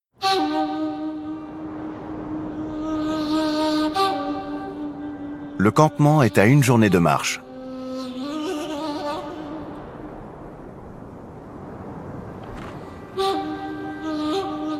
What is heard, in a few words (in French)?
Narration; Mongolie un hiver Tsaatan; Arte